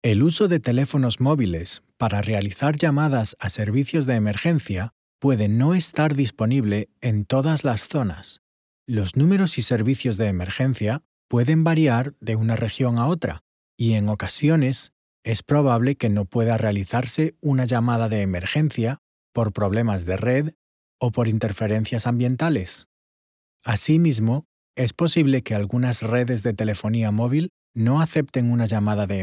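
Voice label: Spanish narration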